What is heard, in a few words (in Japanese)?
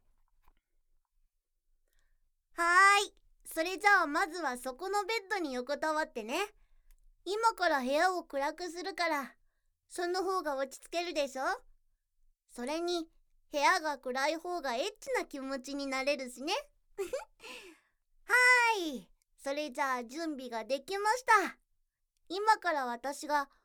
ロリっ子のローションマッサージとボディタッチを交えた催眠スクリプト, ASMR